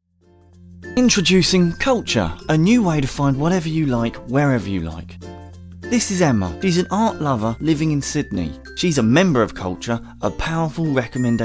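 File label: Commercial Phone IVR Reel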